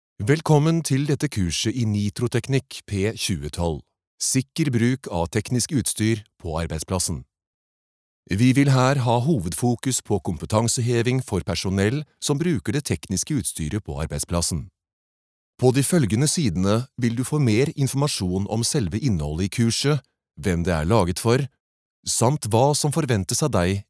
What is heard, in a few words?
no elearning